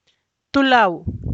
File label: What is your name? (informal), Santiago Matatlán Zapotec; Tú láu?; (Spanish) Cómo te llamas?